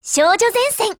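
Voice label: TITLE CALL, Japanese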